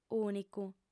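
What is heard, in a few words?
"ùnicu", Sardinian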